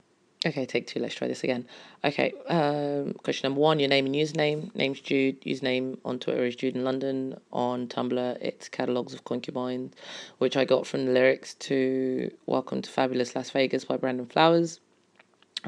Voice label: accent challenge